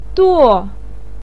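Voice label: "duo" fourth tone, Mandarin Chinese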